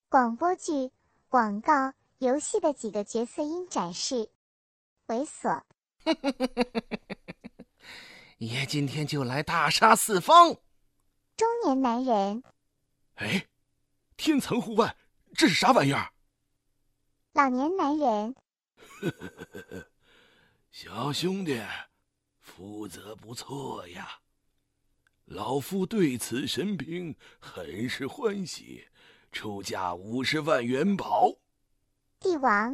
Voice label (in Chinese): 角色 配音